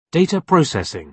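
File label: "data processing", British English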